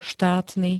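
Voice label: "štátny", Slovak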